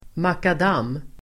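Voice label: "makadam", Swedish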